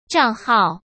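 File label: "账号", Mandarin Chinese; hsk; chinese flashcards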